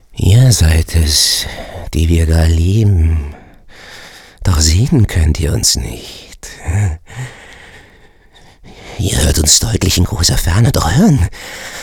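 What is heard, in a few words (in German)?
psycho, Sprecher, Männer; engelszungen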